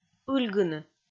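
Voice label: "ыльгыны", Komi, female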